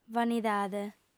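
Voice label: "vanidade", lingua sarda, female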